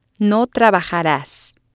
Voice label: "no Trabajaras", spanish

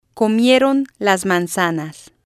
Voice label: "comieron Las Manzanas", spanish

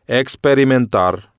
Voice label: "experimentar", spanish

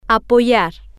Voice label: "apoyar", Spanish

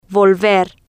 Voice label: "volver", spanish

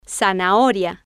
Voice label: "zanahoria", Spanish

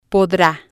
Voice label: "podra", spanish

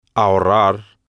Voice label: "ahorrar", spanish

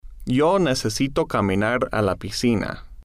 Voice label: necesito caminar a la piscina, spanish

